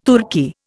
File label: "Turki", Indian